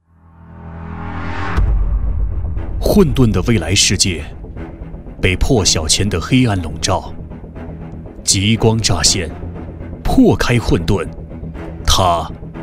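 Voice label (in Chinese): 男 专题【魔幻】宣传片; 比特币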